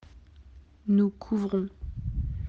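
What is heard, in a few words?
"couvrir", French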